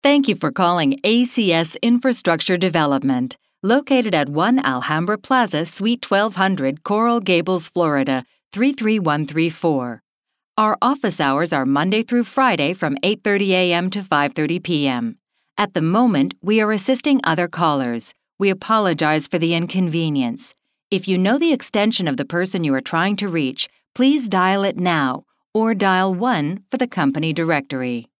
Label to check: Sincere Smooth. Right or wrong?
Right